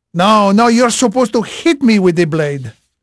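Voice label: Portuguese male, voice; Pirates Of The Caribbean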